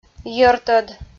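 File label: "йӧртӧд", Komi, female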